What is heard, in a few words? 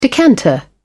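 "decanter", English, British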